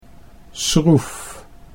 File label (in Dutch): "schroef", Dutch